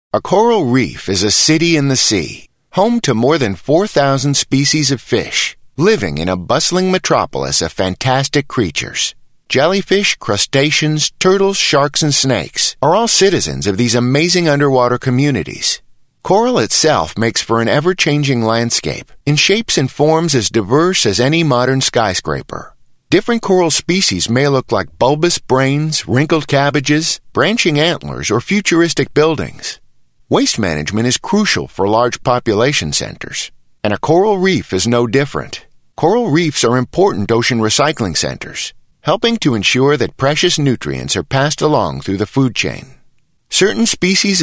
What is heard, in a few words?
eLearning Museum